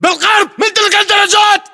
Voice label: landmark near steps, battle chatter, english

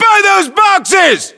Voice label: US landmark near boxes, battlechatter, english

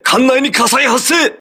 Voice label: voice message fire on board, crew ships japanese

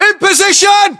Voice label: in position, english voiceovers; UK

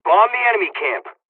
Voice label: dialogs russian; War Thunder

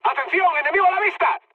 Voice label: enemy detected, mood high, speech; War Thunder

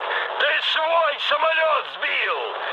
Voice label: ru player killed ally mood med; War Thunder

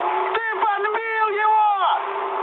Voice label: player killed enemy, mood high, speech ru

